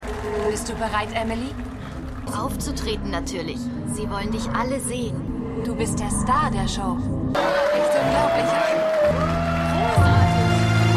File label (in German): Zwillingsschwester Zirkusbesucher, Stimmproben